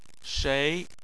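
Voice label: "shei" tone 2, Chinese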